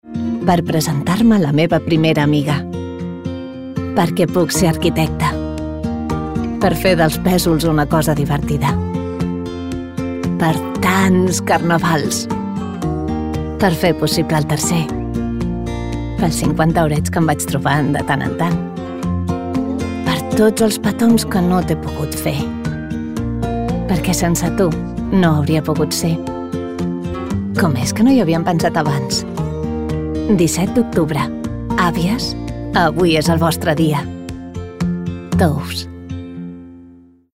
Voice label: female spanish jewelry comercial